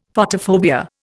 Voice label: "Photophobia", Indian; Dictionary